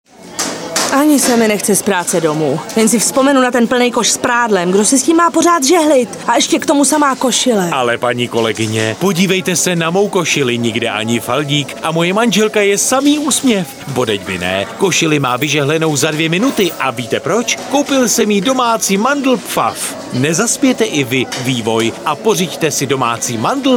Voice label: spot; Pfaff